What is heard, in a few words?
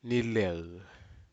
"nilel", Aneityum; bushes